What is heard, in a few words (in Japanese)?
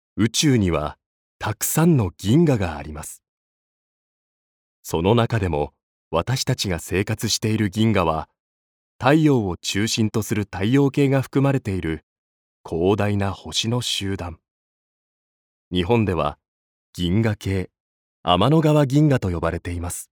ナレーション